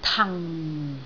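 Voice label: "tan4", Cantonese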